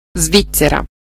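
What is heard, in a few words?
"switz", Italian; gcompris-data; geography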